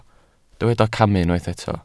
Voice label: "camu", welsh